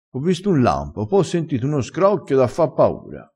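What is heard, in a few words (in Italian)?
"scrocchio", dialetto crespinese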